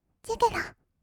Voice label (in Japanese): "チェケラ", kawaii voice; ASMR; 1200 kawaii voice!!; 音ゲー